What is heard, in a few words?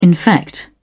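"infect", British English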